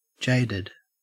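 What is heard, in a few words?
"jaded", Australian English; pronunciations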